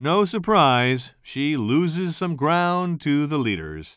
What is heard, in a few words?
commentator